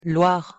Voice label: pronunciation fr loire